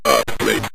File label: "upgrade", Synth Voice; Kenney's Sound Pack